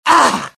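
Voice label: death, dialogue generic Italian; mohaa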